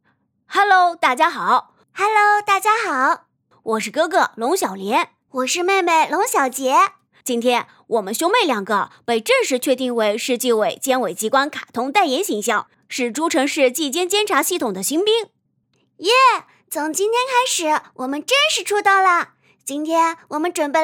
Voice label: 男女童音

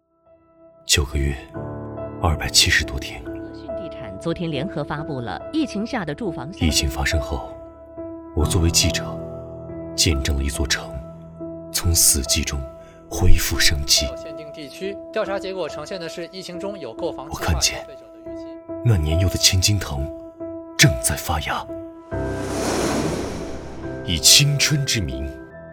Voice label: 公益广告

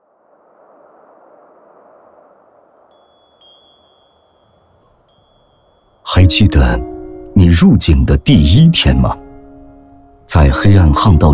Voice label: 旁白; 嗨我们一起奋斗吧

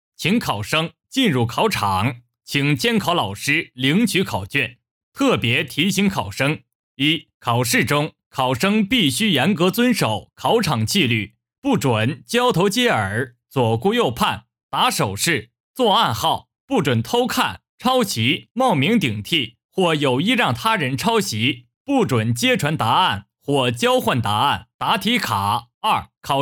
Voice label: 通告广播 考场

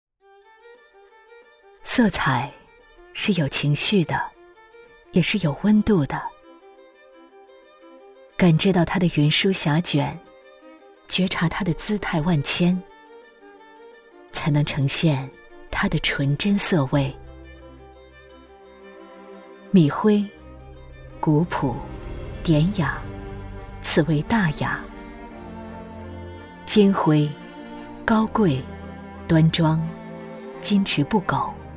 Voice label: 旁白 成熟 色彩 情绪

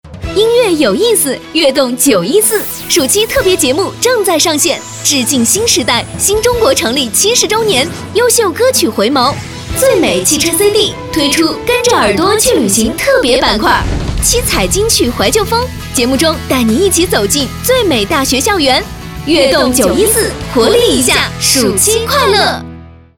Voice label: 电台广告

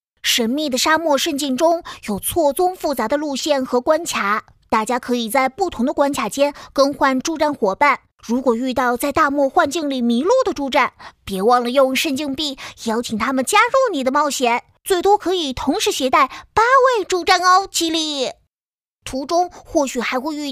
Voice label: 可爱男童

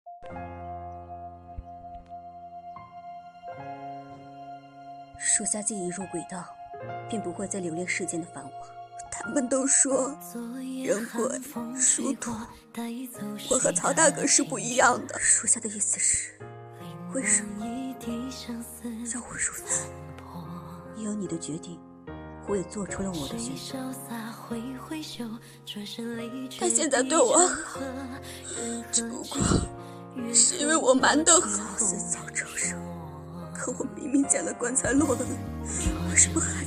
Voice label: 女角色; 山河令

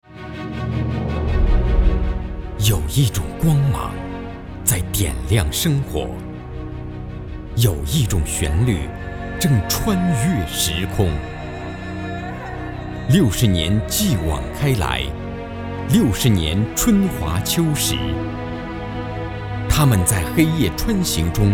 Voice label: 宣传片

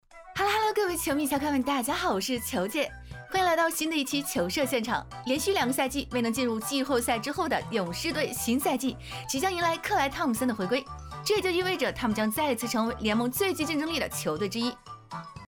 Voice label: 解说欢快俏皮风格

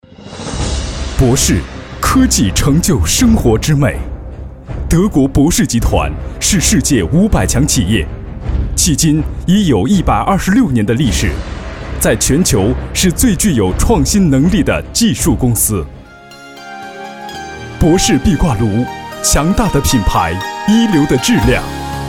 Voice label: 稳重 企业 产品 介绍

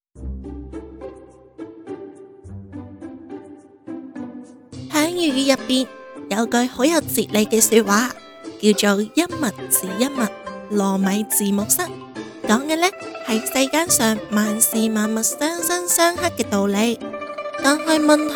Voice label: 趣讲粤语; 趣讲粤语糯米真的能治木虱

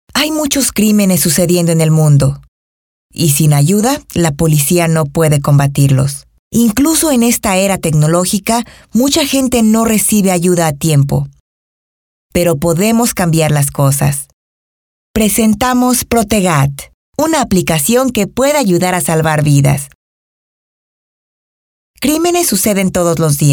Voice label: 西班牙语 女 磁性嗓音

